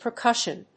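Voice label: "percussion", English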